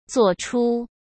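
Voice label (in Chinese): "作出", Mandarin Chinese; hsk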